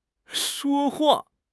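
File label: "shuo1 hua4", Chinese; HSK; HSK2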